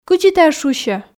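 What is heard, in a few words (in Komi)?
кыдзи тайӧ шусьӧ, Komi, female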